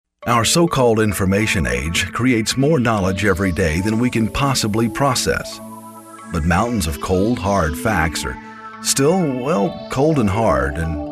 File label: Narration